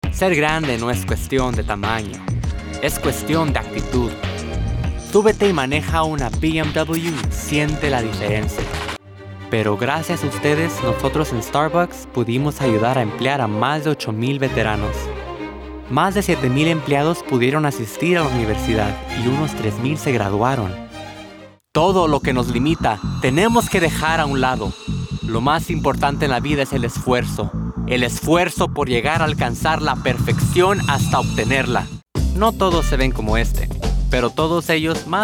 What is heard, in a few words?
Main Spanish, Spanish (Latin and Castillian); Commercial Voiceover, TV and Radio, Narration, Corporate, Medical Narration, International Voiceover